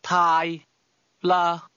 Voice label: "too", cantonese; adverbs